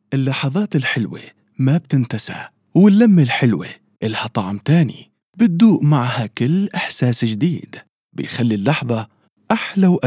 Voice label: syrian, voiceover

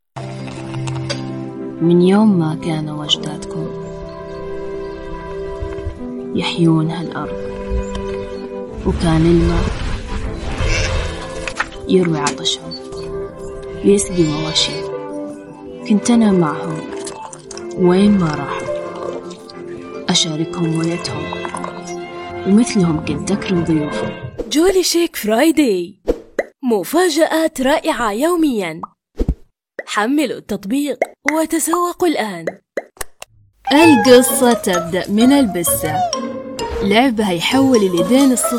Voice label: saudi Commercials

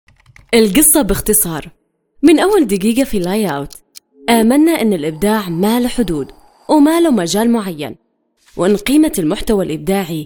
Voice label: yemeni

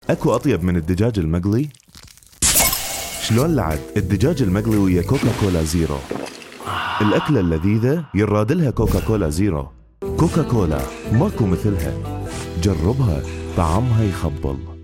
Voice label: iraqi AD, voiceover

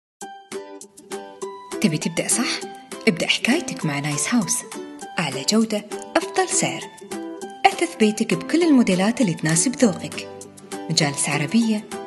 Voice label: Omani

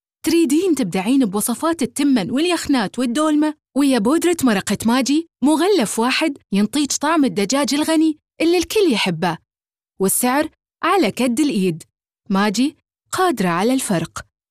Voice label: Iraqi

